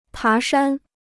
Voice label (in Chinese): "爬山", Chinese